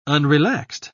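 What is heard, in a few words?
"unrelaxed", British English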